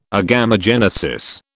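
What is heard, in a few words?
"agamogenesis", British English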